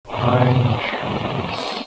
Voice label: creek voice